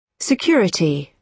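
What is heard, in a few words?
"security", British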